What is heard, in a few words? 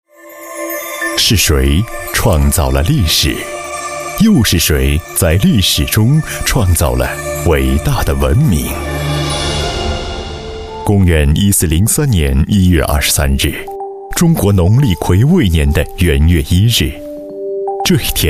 chinese voice over male documentary